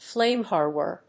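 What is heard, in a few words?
"flamethrower", English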